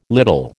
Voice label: "little", American